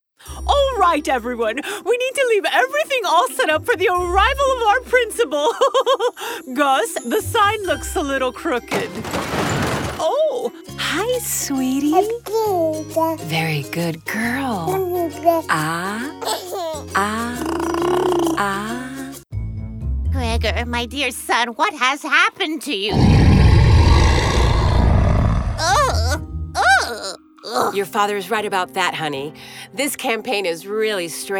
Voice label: Animation